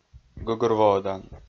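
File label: "гӧгӧрвоӧдан", Komi-Zyrian, male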